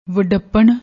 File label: "vadapan", Punjabi